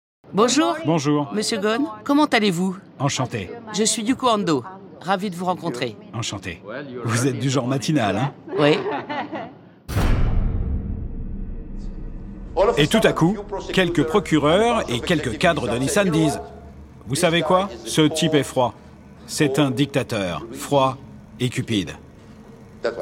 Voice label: Voice over; Levade